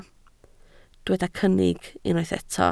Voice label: "cynnig", Welsh